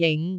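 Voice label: "jing5", Cantonese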